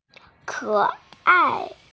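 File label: "keai", Mandarin Chinese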